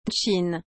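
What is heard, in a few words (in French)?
"Tchin", French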